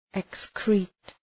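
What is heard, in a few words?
"excrete", English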